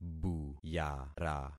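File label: "buyara", concatenated syllables; Affixing experiment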